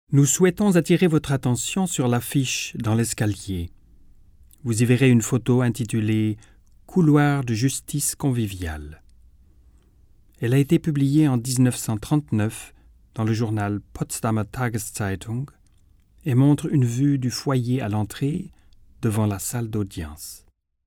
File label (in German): audioguide; LOFT VOICES